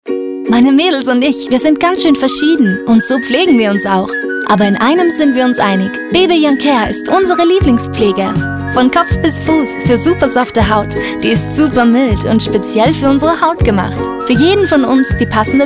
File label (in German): Sprechen Werbung; Bebe